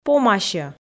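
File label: "помасьӧ", Komi, female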